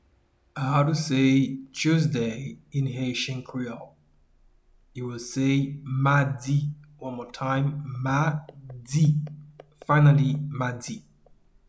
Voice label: "Madi", Haitian Creole pronunciation; “Tuesday” is translated as “Madi” in Haitian Creole